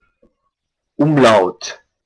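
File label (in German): "Umlaut", German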